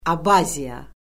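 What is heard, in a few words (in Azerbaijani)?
"abaziya", Azerbaijani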